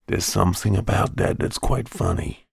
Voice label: human voice character american male 40s says sarcastically theres something about that